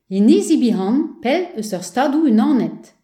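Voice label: "us minor", Breton; gcompris; geography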